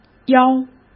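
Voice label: "邀", Mandarin Chinese; hsk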